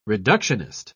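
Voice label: "reductionist", English, British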